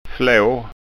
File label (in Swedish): "fla", skanskaord